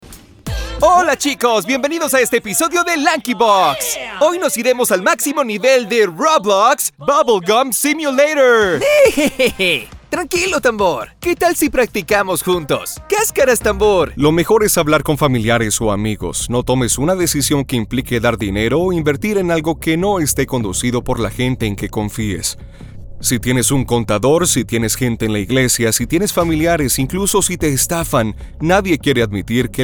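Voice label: Latin American Spanish, Characters; GoLocalise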